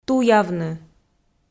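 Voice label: "туявны", Komi, female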